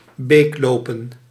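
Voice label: "beeklopen", Dutch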